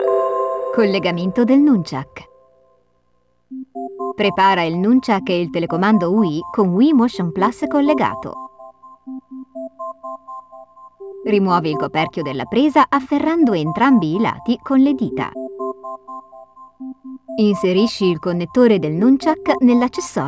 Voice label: Connecting the Nunchuk (Italian); Skyward Sword Original Soundtrack